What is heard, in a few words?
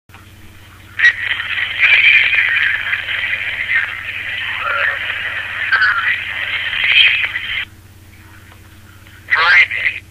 Phone Messages; EVP; This is an EVP which appeared on my answering machine, like all the others, it was from an unknown name, unknown number